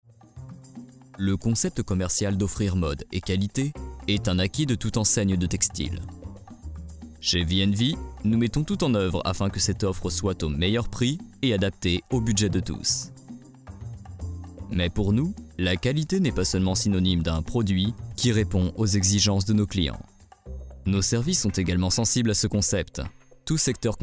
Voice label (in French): voix off instit sérieuse